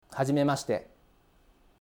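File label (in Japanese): "hajimemashite", Japanese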